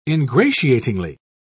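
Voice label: "ingratiatingly", British English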